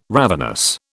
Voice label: "ravenous", British